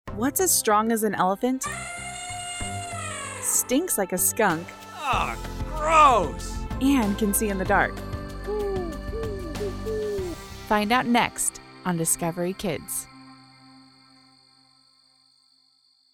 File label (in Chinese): 轻快探索发现节目广告